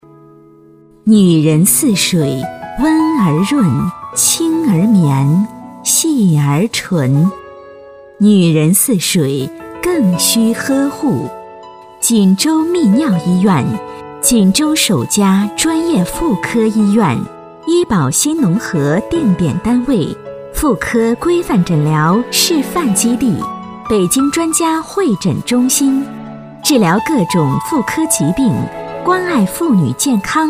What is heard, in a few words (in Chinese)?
广告 医院医药 医院 大气; 锦州医院